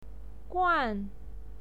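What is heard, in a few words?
"guan", Mandarin Chinese pinyin, fourth tone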